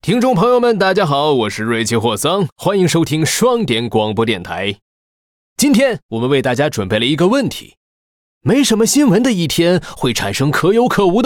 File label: Mandarin; 瑞奇（双点校园）